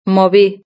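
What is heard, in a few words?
"movió", Spanish; verb-conjugator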